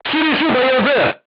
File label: voice message driver contused, crew tanks turkish